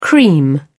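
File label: "cream", English, British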